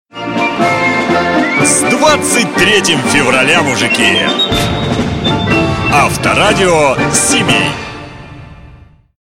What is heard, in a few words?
23 February Man RUS